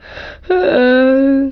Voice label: "Boring", Danish